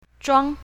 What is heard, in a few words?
"zhuang1", pinyin, Mandarin